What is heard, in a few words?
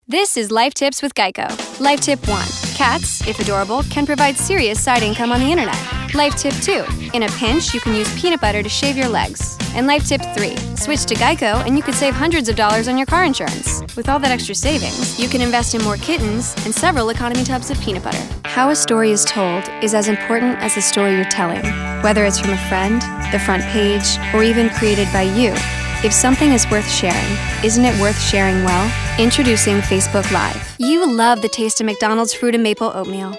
Voiceover Narration Women; new york